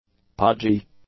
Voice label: "podgy", english; adjectives